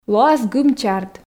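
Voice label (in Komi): лоас гым-чард, Komi, female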